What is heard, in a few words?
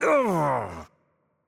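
French hurt heavy voice; bloom minigame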